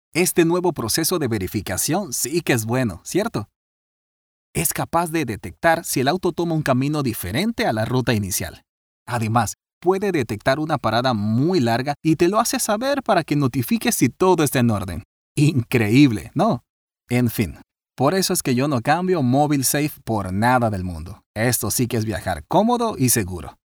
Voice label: Spanish Latin American others

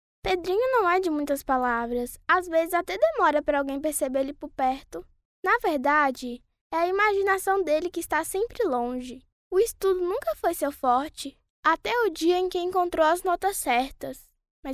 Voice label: portuguese brazilian

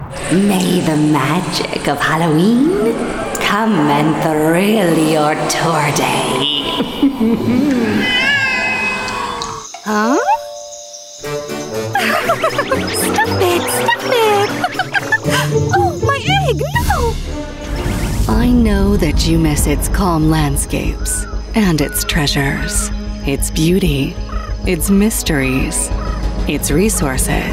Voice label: english american animation; professional North American woman voice over talent

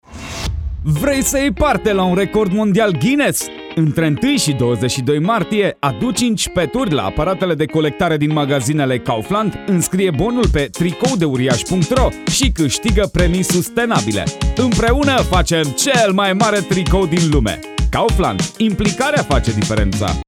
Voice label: romanian commercial

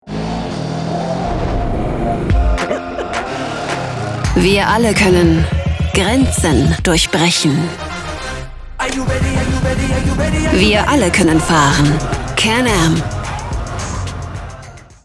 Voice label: german automotive; professional voice over artist native in both German and Norwegian

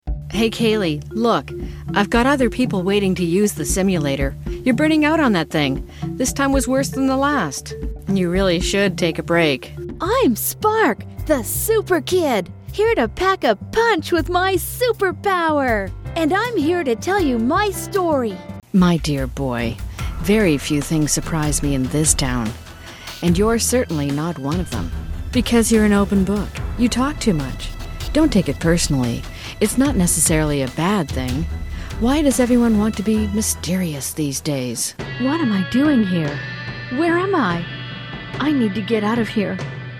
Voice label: English Canadian video games

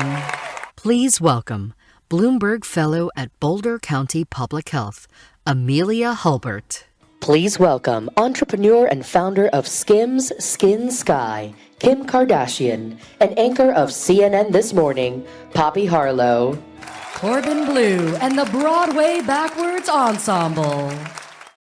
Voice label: english american announcements

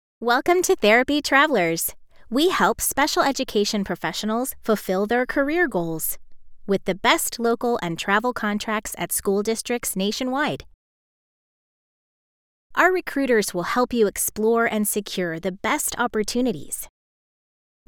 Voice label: english american explainer videos

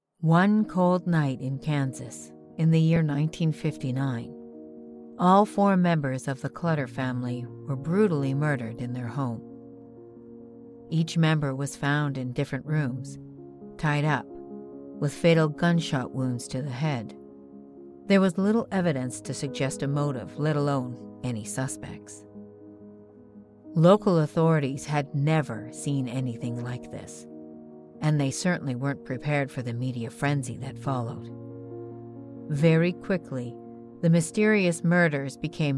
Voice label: english canadian documentaries

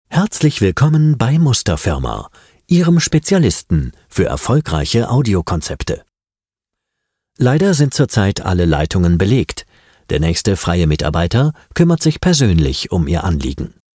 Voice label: german ivr

